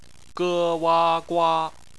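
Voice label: "gua" bopomofo, Chinese Phonetics; bpmf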